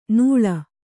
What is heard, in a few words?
"ನೂಳ್", kannada